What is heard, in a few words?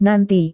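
"nanti", Indonesian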